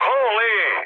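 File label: Serbian trick mood high; War Thunder; speech srb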